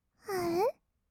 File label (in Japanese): あう, kawaii voice; ASMR; 色々なワンショット